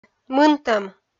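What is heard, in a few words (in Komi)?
"мынтӧм", Komi, female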